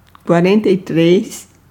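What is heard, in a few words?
Portuguese numbers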